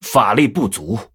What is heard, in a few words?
Male Blood Elf ERROR NEED MANA, zh